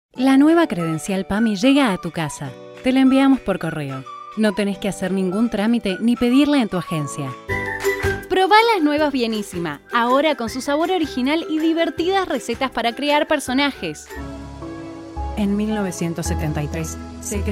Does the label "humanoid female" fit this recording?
No